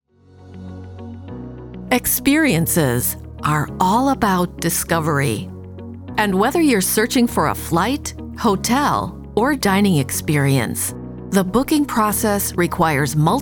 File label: Professional Confident